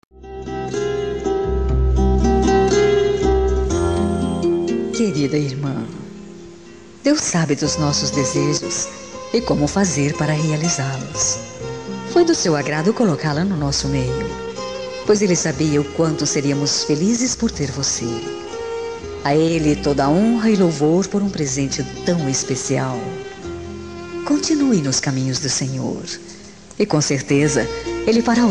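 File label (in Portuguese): Evangélica Aniversário de Irma, female, telemensagens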